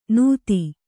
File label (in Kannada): "ನೂತಿ", Kannada